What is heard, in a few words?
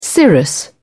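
"cirrus", British English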